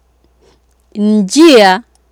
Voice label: "njia", Swahili